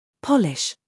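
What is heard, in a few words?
"scandal", American; polish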